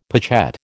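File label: "pochette", American English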